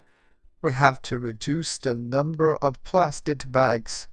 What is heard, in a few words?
amused; libritts; emov db